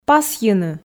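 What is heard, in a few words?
"пасйыны", Komi, female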